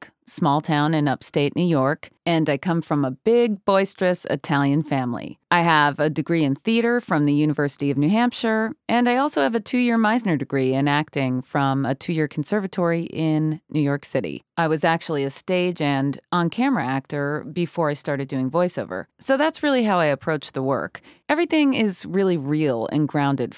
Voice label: Home Studio Sample